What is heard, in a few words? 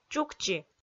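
"чукчи", Komi-Zyrian, female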